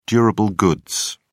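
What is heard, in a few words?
"durable goods", British English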